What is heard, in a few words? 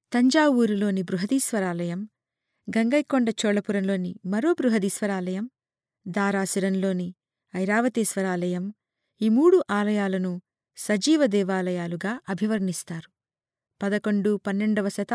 female telugu voice over narrative